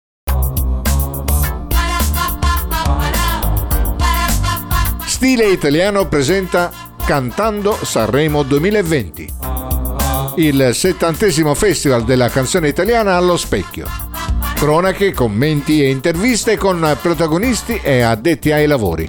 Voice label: promo; cantando sanremo 2020